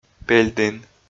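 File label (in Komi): "пель дін", Komi, male; audio dict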